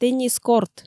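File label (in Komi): теннис корт, Komi, female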